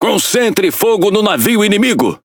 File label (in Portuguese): Speech Quick Commands Enemy Ship, PT BR; World Of Warships